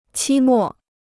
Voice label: "期末", Chinese